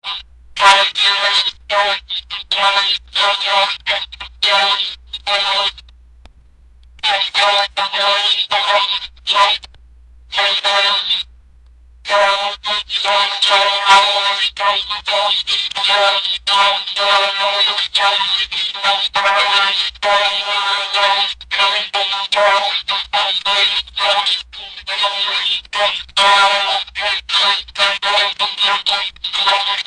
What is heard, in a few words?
sound of a robot saying